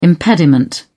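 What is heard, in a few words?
"impediment", British English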